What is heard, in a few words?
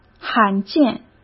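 "罕见", Mandarin Chinese; chinese flashcards; hsk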